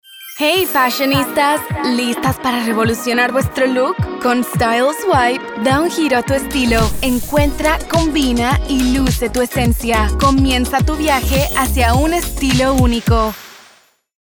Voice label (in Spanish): SPA LATAM Fashion Juvenil Moderno